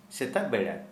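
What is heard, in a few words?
Santali, male, in the morning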